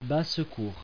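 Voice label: "basse-cour", French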